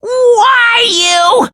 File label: wrath; monokuma